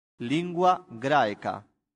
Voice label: "lingua graeca", latin; santillana